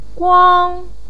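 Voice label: "guang1", Mandarin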